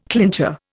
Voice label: "clincher", Indian